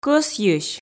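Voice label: "кӧсйысь", Komi, female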